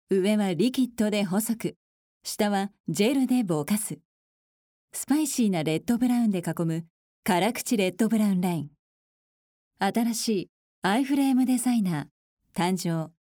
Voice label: female